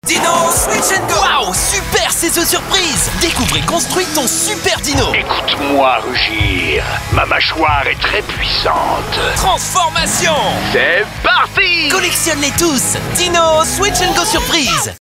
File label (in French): publicite; dinos switch go